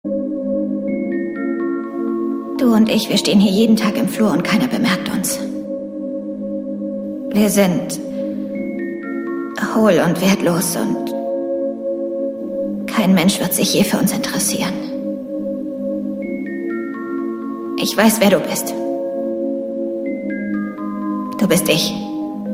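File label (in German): acting, Rau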